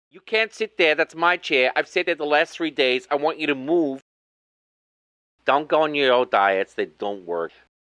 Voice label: VO New Yorker